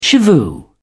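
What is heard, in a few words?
"shivoo", British English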